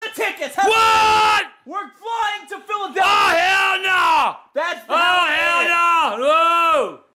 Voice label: angry grandpa

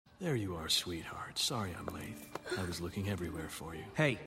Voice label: howls voice

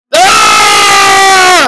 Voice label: grandpa rage

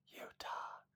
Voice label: whisper; utah

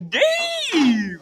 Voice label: daaaaaavvveeee

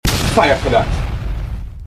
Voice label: fiyah fi dat jamaican

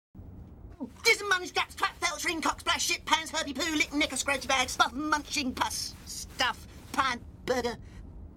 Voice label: angry kid

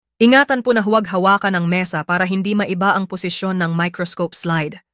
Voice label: do not touch table female

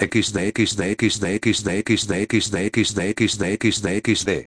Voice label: xd loquendo

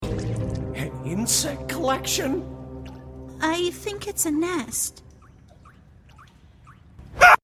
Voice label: yells; kinger; tadc

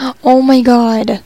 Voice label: oh my god annoyed

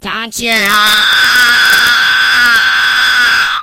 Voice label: dont you aughhhhhhhhhhhhhhhhhhhhhhhh

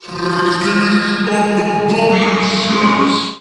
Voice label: megaphone; skibidi toilet 77

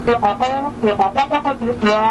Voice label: yapping

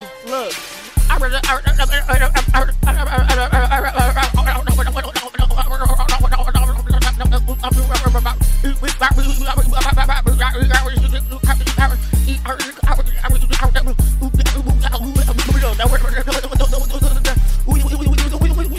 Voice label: worst stuttering ever

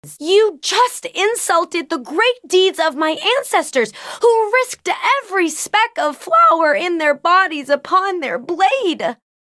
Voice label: flour voice crack

